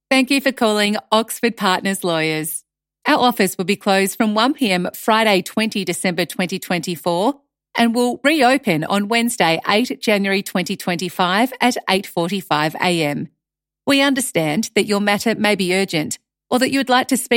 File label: holiday voicemail; law firm phone greetings; oxford partners